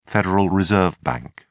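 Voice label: "federal reserve bank", British English, dictionary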